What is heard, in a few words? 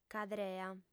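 "cadrea", female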